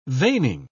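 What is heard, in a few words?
"veining", British English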